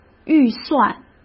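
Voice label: "预算", Mandarin Chinese; chinese flashcards; hsk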